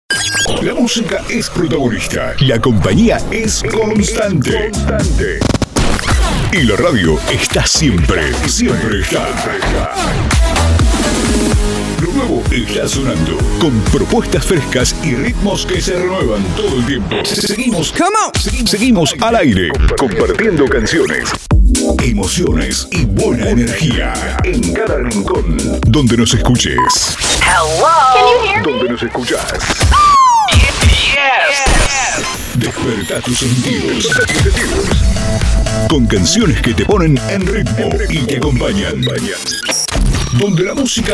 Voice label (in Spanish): Arte Generico, Estilo generico atemporal, Voz masculina; Packs de artisticas personalizables para radios y programas; 50 spots personalizables